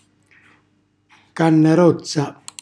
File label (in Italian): "cannarozza", Calabrese